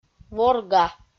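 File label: "ворга", Komi, female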